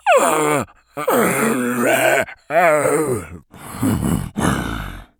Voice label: mumbling, zombie; Left 4 Fortress